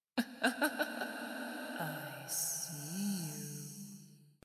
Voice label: spooky voice

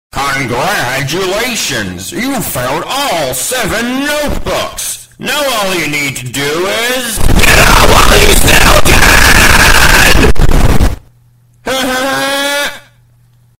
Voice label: yelling at you; baldi

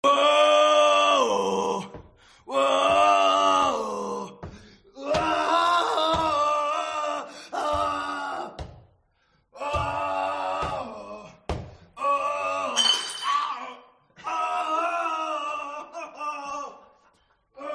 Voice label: pirate call

